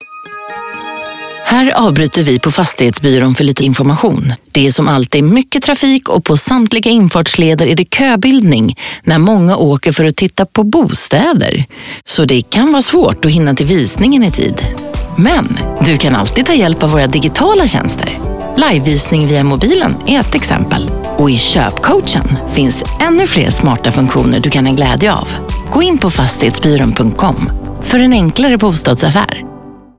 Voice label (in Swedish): trafikinformation; fastighetsbyran